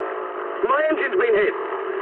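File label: English damaged engine mood medium; War Thunder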